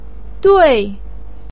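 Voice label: "dui" fourth tone, Mandarin Chinese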